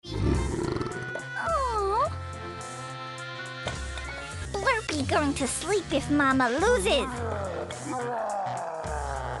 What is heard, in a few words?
blurp voice